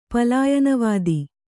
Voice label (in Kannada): "ಪಲಾಯನವಾದ", Kannada